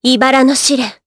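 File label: Vox Skill Japanese; Lorraine; kingsraid; jp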